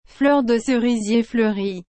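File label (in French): "fleur de cerisier fleurit", French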